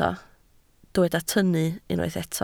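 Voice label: "tynnu" Welsh, spoken word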